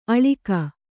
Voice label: "ಅಳಗೆ", Kannada